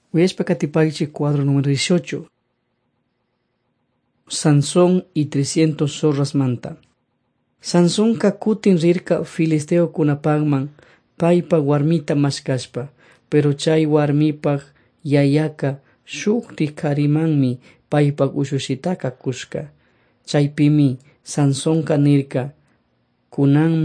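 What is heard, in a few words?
quichua chimborazo, drama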